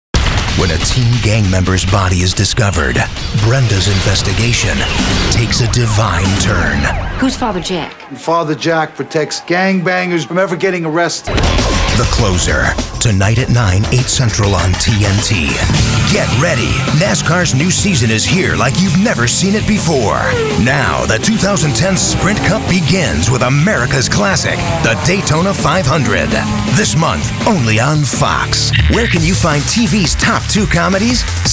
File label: Promos